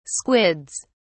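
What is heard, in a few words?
"squids", British English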